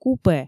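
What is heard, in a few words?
"купе", Komi, female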